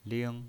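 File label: "ling", pinyin, Mandarin Chinese, first tone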